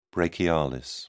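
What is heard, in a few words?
"brachialis", English, British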